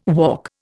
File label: "walk", Indian